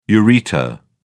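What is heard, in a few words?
"ureter", British English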